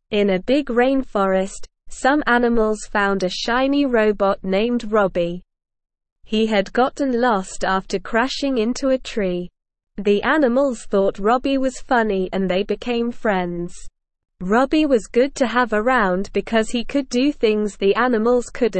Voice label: ESL Short Stories for Kids Lower Intermediate SLOW Reading; The Robot in the Rainforest